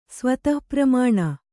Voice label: "ಸ್ವತಃಪ್ರಮಾಣ", Kannada